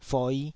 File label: "foi5", Taiwanese